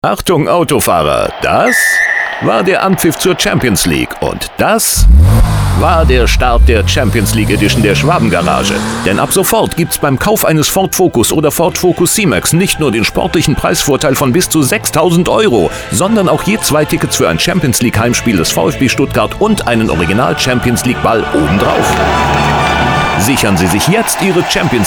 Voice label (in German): Funkspot Anpfiff